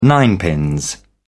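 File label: "ninepins", British English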